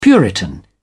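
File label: "puritan", British English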